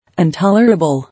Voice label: "Intolerable", English